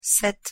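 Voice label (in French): "sept" French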